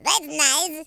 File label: "collect", French; speech